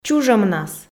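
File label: "чужӧмнас", Komi, female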